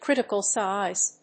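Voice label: "critical size", English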